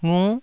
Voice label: "ngu9", taiwanese syllables

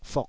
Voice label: "fok3", taiwanese syllables

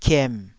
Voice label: "kiem3", taiwanese syllables

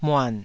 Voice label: "muan3", taiwanese syllables

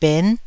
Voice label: "ben9", taiwanese

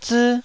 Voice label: "zii1", Taiwanese syllable

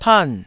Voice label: "pan4", taiwanese

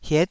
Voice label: "hiet9", Taiwanese syllable

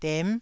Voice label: "dem9", Taiwanese syllable

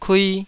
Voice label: "kui2", Taiwanese syllable

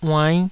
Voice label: "uainn5", taiwanese

